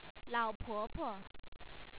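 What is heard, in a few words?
"la3opo2po", Chinese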